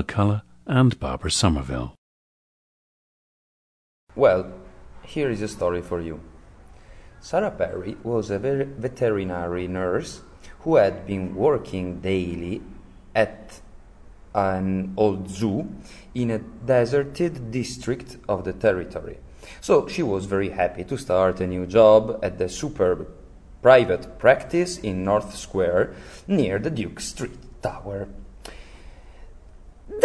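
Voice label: italy, International Dialects of English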